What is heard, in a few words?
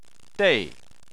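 "dei" fourth tone, Chinese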